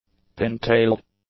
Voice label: "Pin-tailed", English; nouns

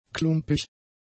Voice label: "klumpig", German; adjectives

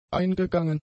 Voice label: "eingegangen", German; adjectives

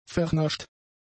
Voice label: "vernascht", German; adjectives

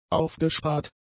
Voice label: "aufgespart", German; adjectives

